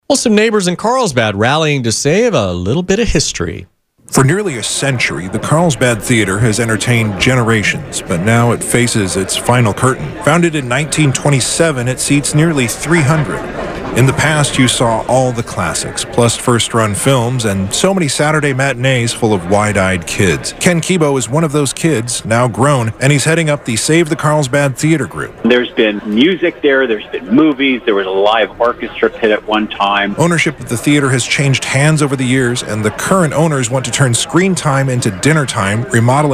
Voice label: Aircheck; Carlsbad Theater